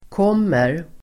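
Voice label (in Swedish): "kommer", Swedish; [k'åm:er]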